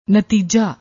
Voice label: "natija", Punjabi; ਨਤੀਜਾ